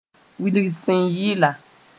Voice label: "wilg sẽn yɩla", moore